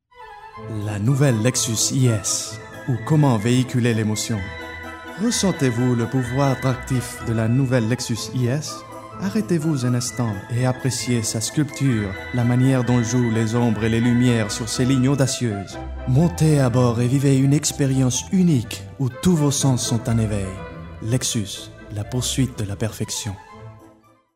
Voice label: French

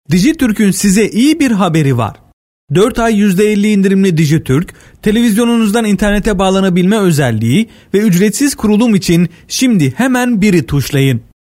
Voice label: Turkish

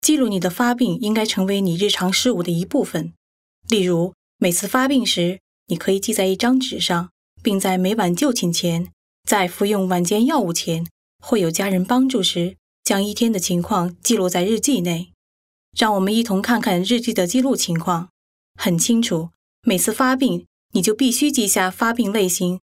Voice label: Mandarin

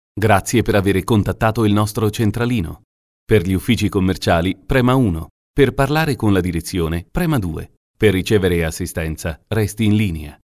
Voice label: Italian

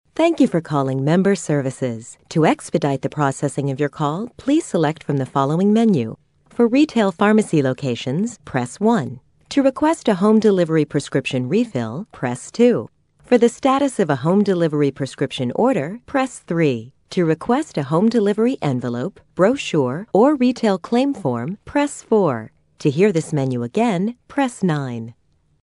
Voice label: US English

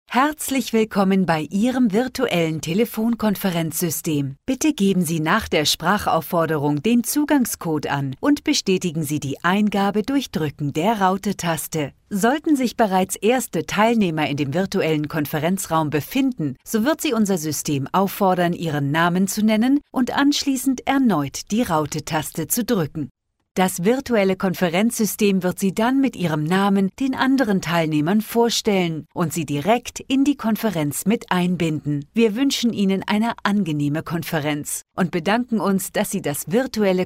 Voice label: German

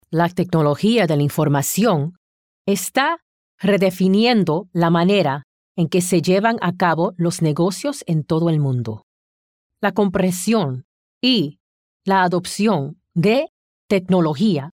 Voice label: Spanish

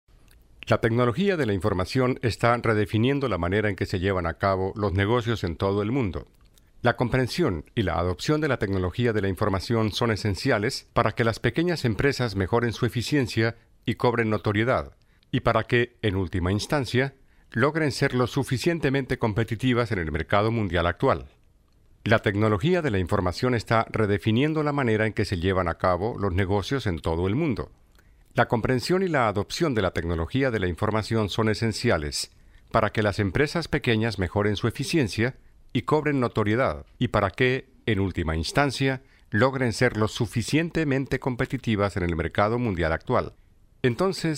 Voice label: Spanish

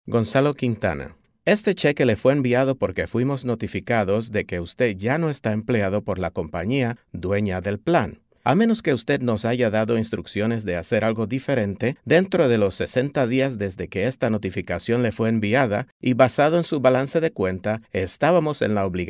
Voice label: Spanish

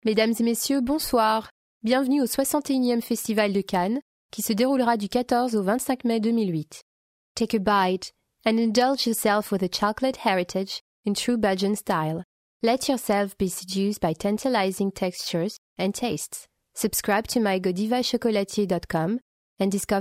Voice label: French

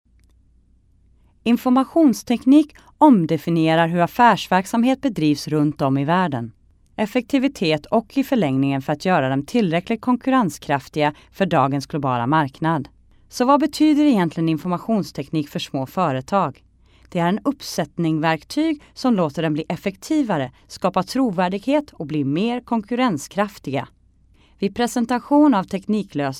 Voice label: Swedish

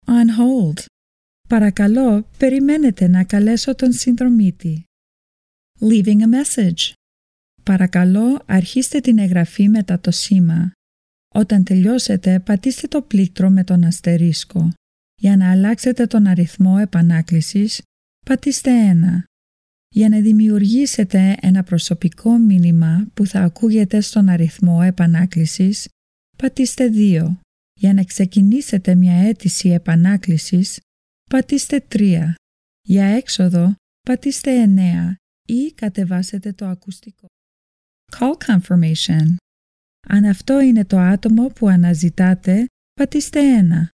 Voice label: Greek

